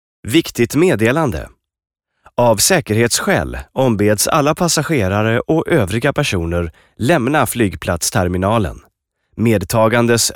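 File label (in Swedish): meddelande, male voice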